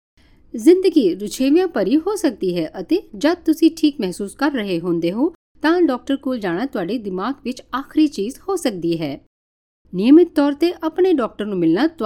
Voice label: punjabi